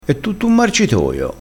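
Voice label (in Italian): "marcitoio", dialettale crespinese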